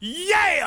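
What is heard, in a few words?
"Yeah", male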